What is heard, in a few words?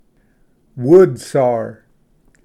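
"woodsawyer beetle", English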